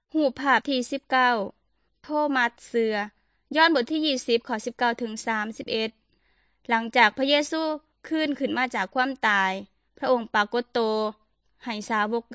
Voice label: good news thai northeastern

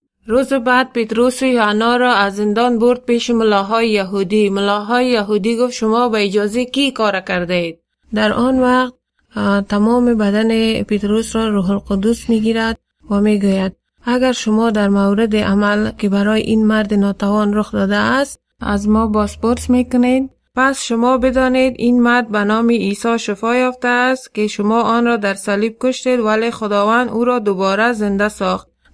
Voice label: dari, bible stories; boldness tahdid tawoset molahay yahood

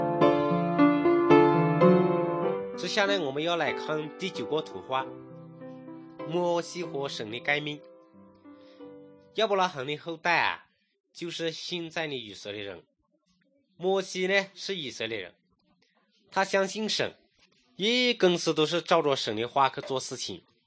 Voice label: good news shan, drama

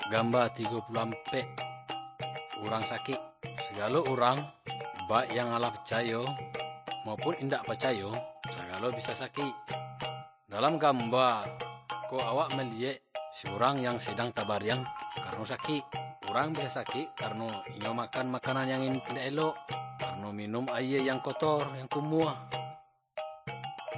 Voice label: good news minangkabau muko muko; kaba ilo

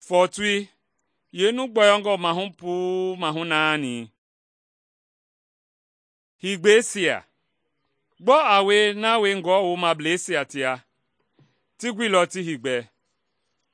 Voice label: mende bsl nt drama; good news mende kpa

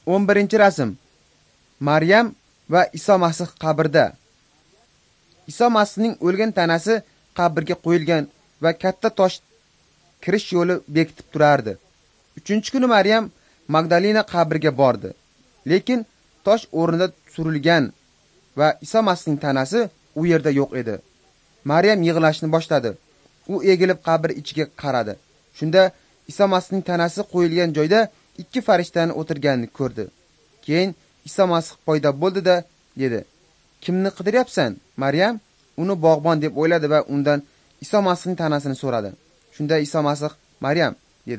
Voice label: uzbek northern

